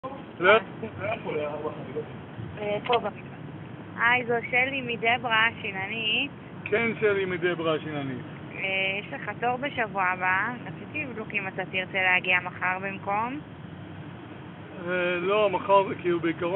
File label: call recording